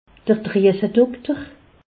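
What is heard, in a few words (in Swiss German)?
"driesedokter", Alsatian; medecine; endocrinologue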